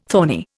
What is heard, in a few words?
"thorny", Indian